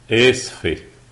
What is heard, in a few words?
sorry, lebanese arabic